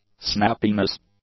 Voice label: "snappiness", english; nouns

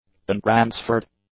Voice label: "untransferred", english; adjectives

